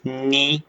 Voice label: short voyels arabic alphabet